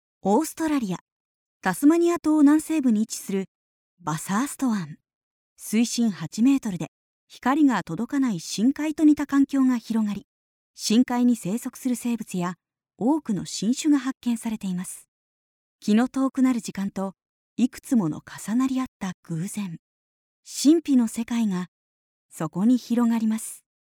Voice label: female; ドキュメンタリー